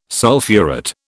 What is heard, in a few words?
"sulphurate", British